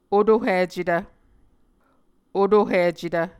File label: "otohicetv", Muscogee